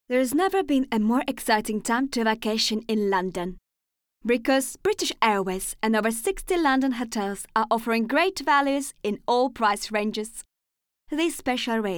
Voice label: female russian english with russian accent compilation